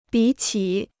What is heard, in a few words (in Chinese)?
"比起", Chinese